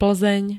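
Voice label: "Plzeň", Slovak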